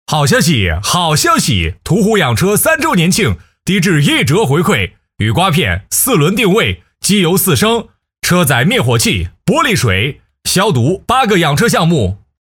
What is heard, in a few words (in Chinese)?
男, 促销激情大气; 途虎养车3周年庆